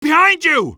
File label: behind you, male; Half-Life 2